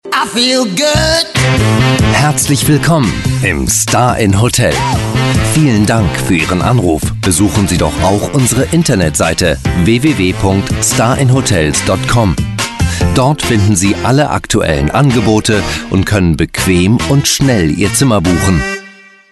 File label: german commercial